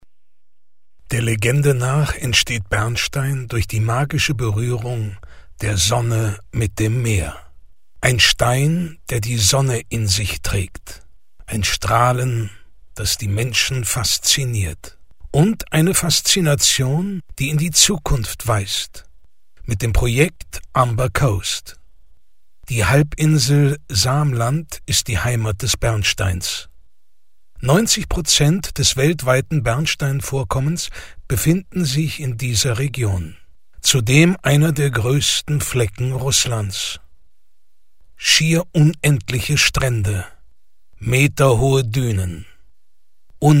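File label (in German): Kommentar Sanft; Fremdtake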